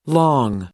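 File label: "long", adjective, English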